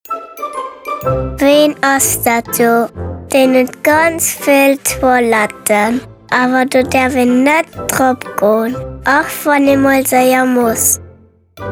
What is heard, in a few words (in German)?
Radiospot Kinder erklären